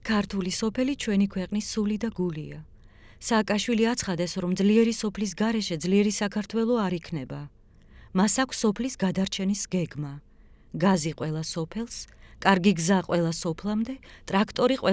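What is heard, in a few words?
georgian female narration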